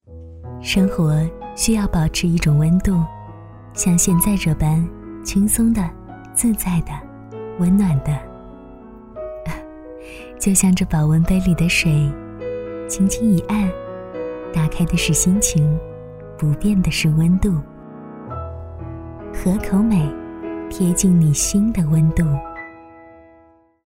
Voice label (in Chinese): 女 广告 柔和, 国语女声配音; 合口美